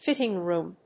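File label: "fitting room", English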